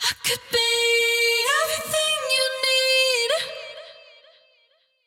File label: vocal phrase wet everything you need 128 Fm